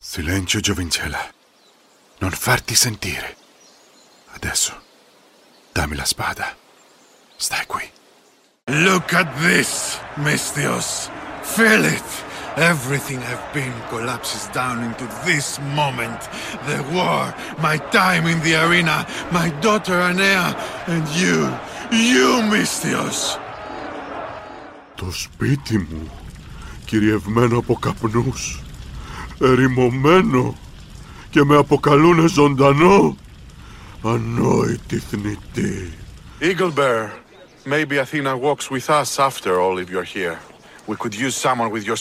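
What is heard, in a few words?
Greek, video game, Italian English Greek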